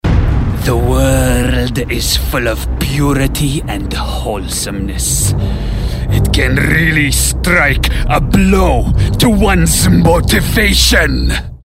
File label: Angry